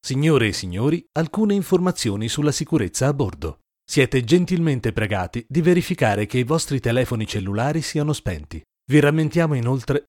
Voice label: announcement